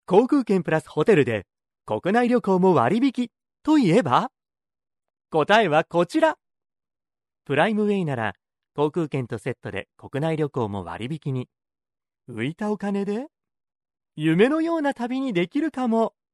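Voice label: Japanese, male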